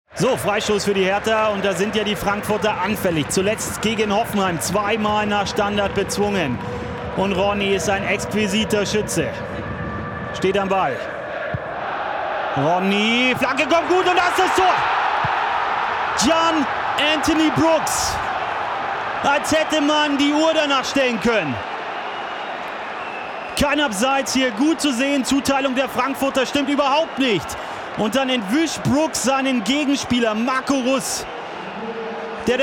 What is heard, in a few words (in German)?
Berlin Fussballkommentator